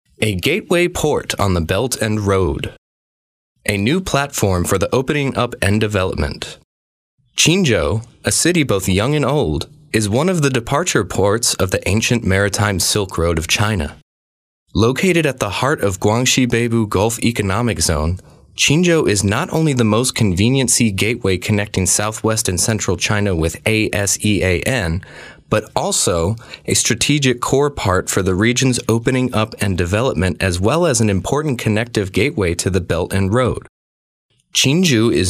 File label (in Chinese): 外籍男英 外语 美式英语 样音